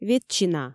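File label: "ветчина", Komi, female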